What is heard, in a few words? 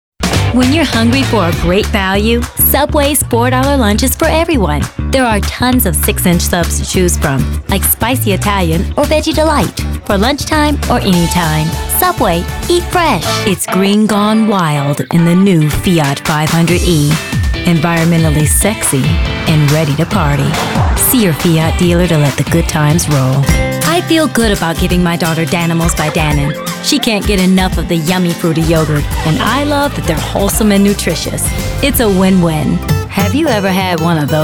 Commercials, voice